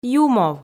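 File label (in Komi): "юмов" Komi, female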